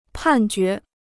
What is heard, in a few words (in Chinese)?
"判决", Chinese